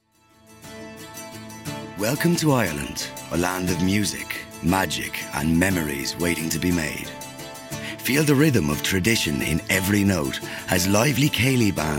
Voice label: audition, irish voice over artists male